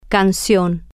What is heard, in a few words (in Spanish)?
"can-cion", Spanish